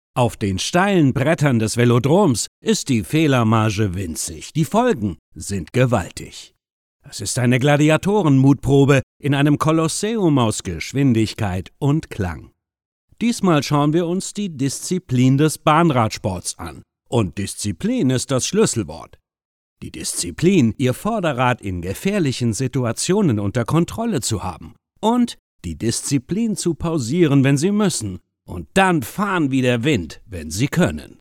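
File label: German, male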